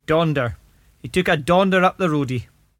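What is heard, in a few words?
daunder: he took a daunder up the roadie, Cromarty Fishertoun dialect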